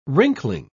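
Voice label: "wrinkling", English, British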